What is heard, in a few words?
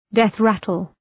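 "death rattle", English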